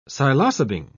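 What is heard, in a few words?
"psilocybin", British English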